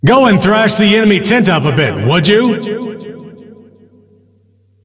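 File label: Announcer Ringmaster enemy base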